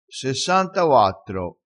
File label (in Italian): "sessantauattro", vocabolario dialettale